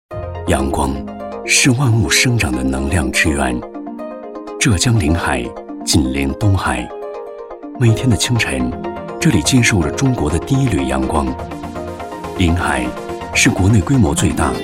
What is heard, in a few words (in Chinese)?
男 专题 科技自然唯美